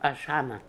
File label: "Ashhana", Beelzebub pronounciations; Fourth Way